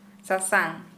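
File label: Santali female yellow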